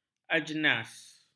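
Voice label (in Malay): "ajnas", Malay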